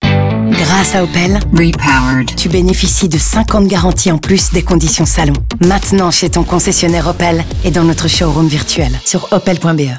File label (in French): Radio Voice; Opel; FR; Général; Opel-Salon; Opel Repowered